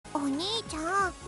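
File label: "onii", Japanese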